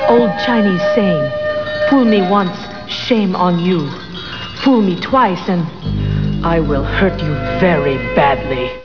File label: Chinese Saying